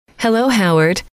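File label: hello, female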